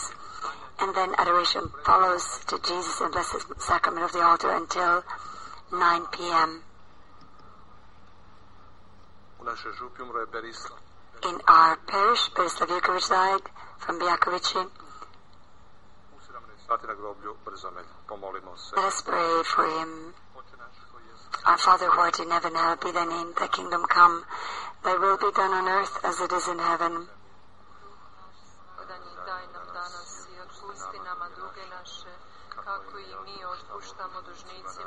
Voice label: Prayer and Announcement